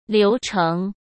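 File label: "流程", Mandarin Chinese; hsk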